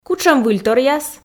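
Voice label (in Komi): кутшӧм выльторъяс, Komi, female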